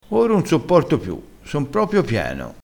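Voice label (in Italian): "esse pieno", vocabolario dialettale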